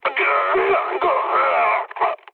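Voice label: damaged pilot, mood low, French; War Thunder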